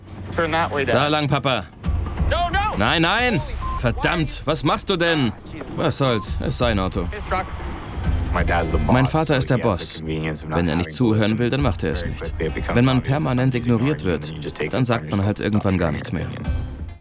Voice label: Voice Over; Jade Fieber